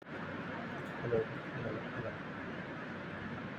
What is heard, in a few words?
voice message